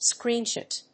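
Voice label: "screen shot", English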